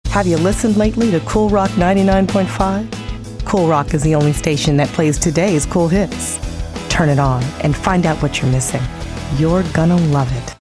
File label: imaging